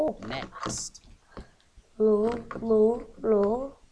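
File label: "low", spoken word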